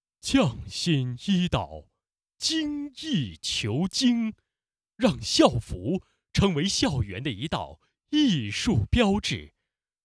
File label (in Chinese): 大气